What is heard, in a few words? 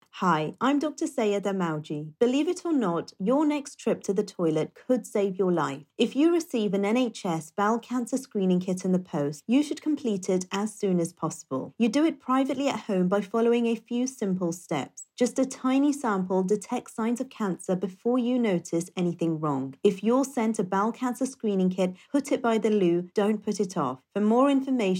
South Asian English; bcs multilingual adverts